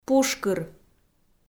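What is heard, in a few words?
"пушкыр", Komi, female; kpv audio dict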